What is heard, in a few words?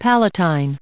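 "Palatine", us English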